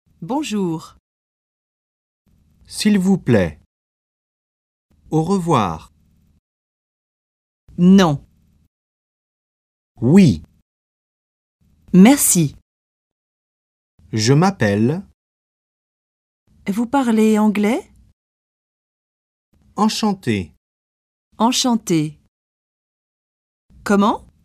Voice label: French

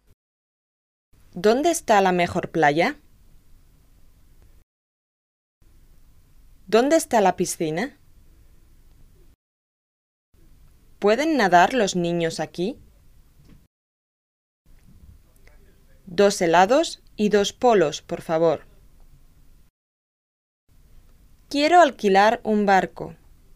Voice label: Spanish

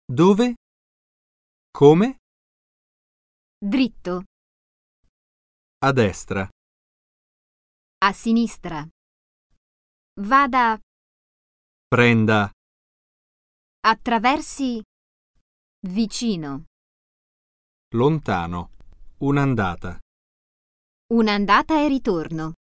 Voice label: Italian

